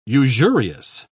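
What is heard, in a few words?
"usurious", British English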